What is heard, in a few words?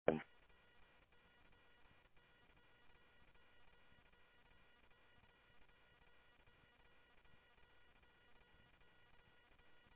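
Mesa Police Department, scanner audio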